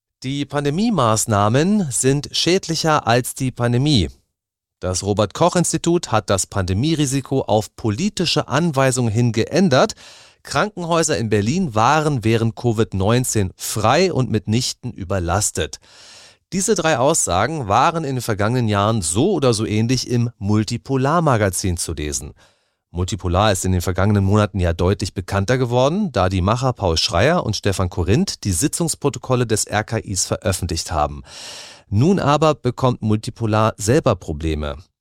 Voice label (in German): Interview; Kontrafunk aktuell